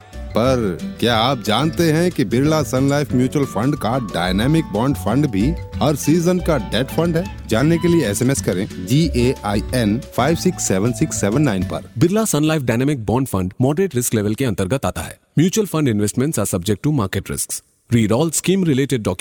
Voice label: Urdu